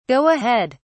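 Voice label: "go ahead", British English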